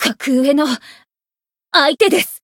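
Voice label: encounter fear, jp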